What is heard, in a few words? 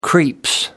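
"creeps", British English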